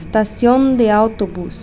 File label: "Station de autobus", Interlingua